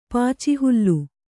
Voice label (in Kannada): "ಪಾಚಿಹುಲ್ಲು", kannada